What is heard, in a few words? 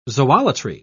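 "zoolatry", British English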